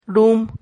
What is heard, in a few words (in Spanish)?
"rum", silabas